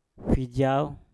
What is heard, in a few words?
"fijauw", Mpur; bernapas